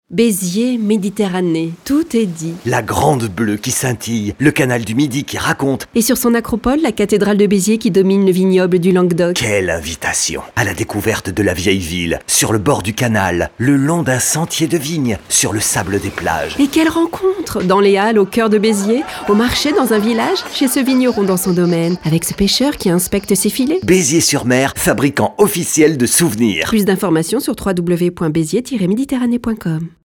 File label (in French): spot radio; beziers